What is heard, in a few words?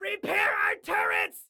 Repair Turrets, Excited Guy